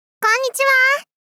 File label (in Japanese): こんにちは！ 少女×高音域